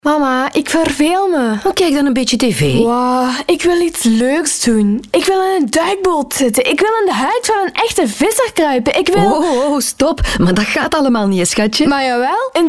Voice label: teenage voice